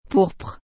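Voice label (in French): "pourpre", French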